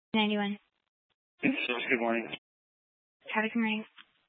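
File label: Police Dispatch, Scanner audio recording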